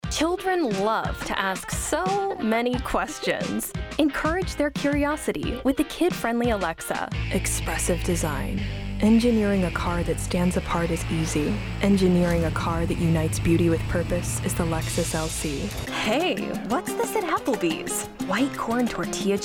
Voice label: Commercial